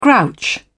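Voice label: "grouch", British English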